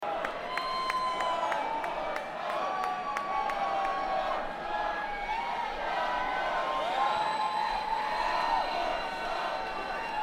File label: Banter Crowd